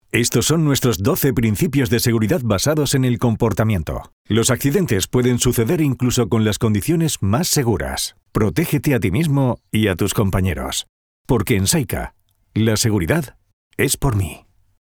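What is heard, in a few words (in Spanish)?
e-learning, Spain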